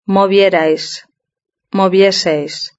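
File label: "movierais", Spanish; verb-conjugator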